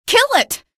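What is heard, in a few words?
citizen alert zombie, female; vo; npc; source engine; episodic; episode 1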